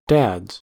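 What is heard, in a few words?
"dads", US English; pronunciations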